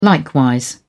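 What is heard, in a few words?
"likewise", British English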